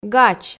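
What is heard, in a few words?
"гач", Komi, female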